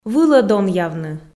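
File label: "вылӧ донъявны", Komi, female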